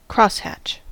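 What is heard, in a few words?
"crosshatch", American English; pronunciations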